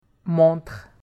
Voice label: "montre", French